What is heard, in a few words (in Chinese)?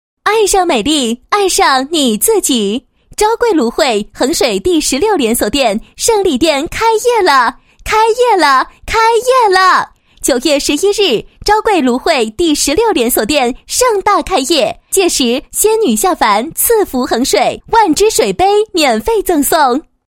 女 广告; 昭贵芦荟